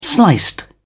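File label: "sliced", British English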